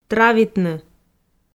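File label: "травитны", Komi, female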